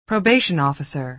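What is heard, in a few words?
"probation officer", American English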